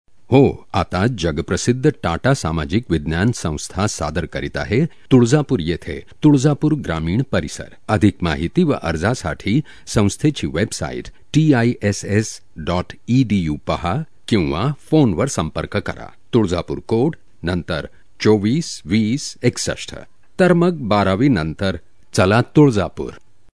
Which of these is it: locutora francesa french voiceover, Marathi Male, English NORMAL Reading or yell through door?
Marathi Male